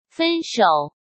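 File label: "分手", Mandarin Chinese; chinese-flashcards; hsk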